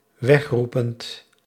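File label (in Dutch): "wegroepend", Dutch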